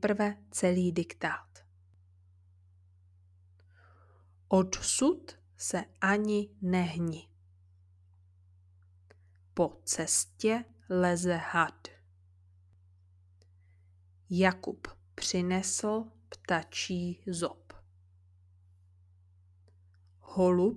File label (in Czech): audiodiktáty párové souhlásky d t; 2 rocnik